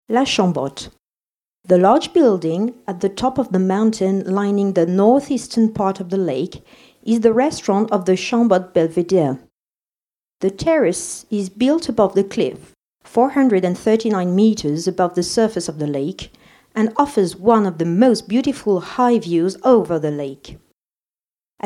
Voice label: UK, audio guide; LA CHAMBOTTE